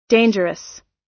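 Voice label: "dangerous", American English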